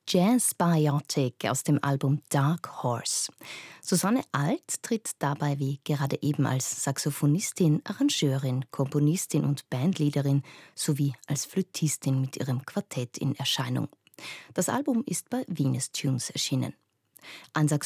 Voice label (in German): on-Air Moderation Nachtsendung; Sprecherin; on-Air Moderation Nachtsendung „Ö1 Jazznacht“